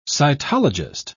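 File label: "cytologist", British English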